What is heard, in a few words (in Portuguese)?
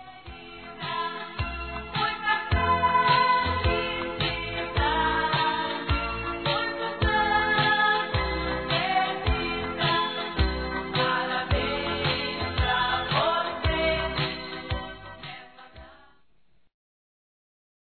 AFILHADO(A) Criança Feminino, Aniversário Infantil; Telemensagens